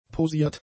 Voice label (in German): "posiert", German; adjectives